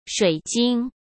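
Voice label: "水晶", Mandarin Chinese; hsk; chinese flashcards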